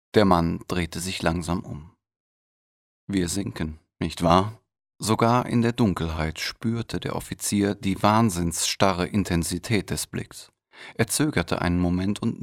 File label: audio book sample; raise titanic